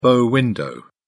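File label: "bow window", British English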